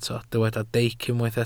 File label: "deic", welsh